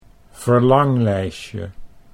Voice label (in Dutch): "verlanglijstje", Dutch